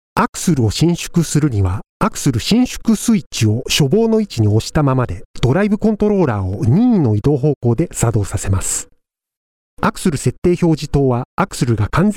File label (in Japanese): Japanese boy